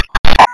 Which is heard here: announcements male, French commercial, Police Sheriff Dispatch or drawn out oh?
Police Sheriff Dispatch